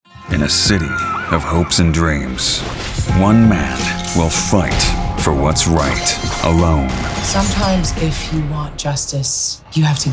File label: Promo